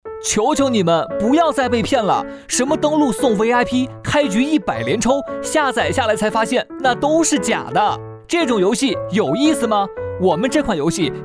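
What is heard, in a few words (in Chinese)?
男【抖音广告】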